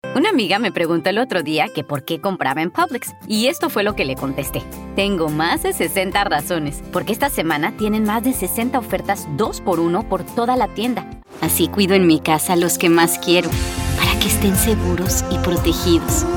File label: Spanish Latin American commercial; Atriz de dublagem mexicana-americana